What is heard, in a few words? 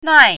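"nai4", Chinese pinyin, fourth tone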